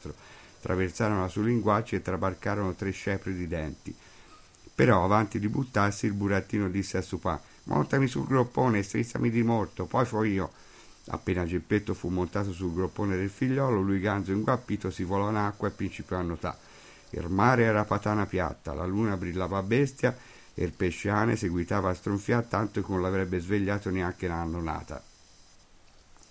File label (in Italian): Pinocchio Livornese